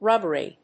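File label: "rubbery", English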